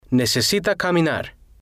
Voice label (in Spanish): necesita caminar, Spanish